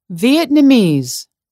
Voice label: "vietnamese"